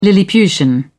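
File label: "lilliputian", British English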